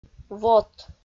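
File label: "вот", Komi, female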